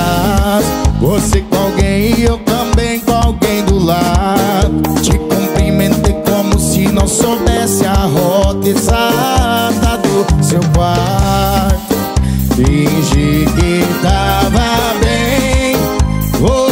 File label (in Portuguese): locutor a voz magnifica